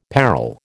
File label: "parol", American